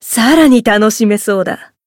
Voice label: growth, jp